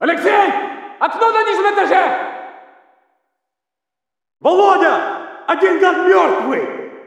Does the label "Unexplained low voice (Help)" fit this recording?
No